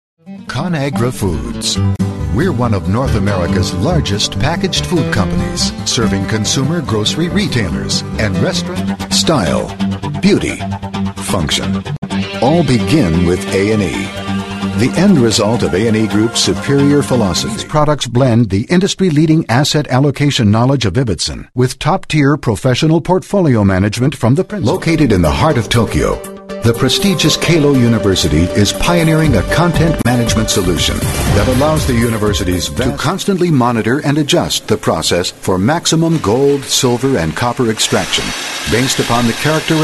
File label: American Male